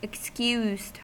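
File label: "excused", American English; pronunciations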